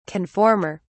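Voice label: "conformer", British English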